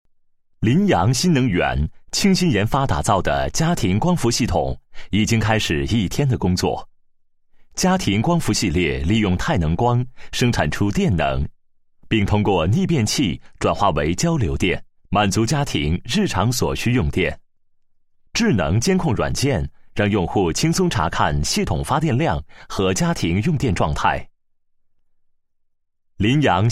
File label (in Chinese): 男 企业 宣传介绍; 林洋新能源宣传介绍